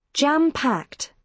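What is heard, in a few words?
"jam packed", British English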